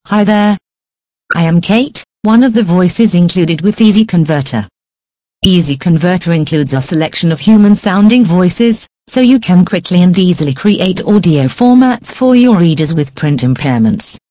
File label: Expressive; Expressive Kate; easy converter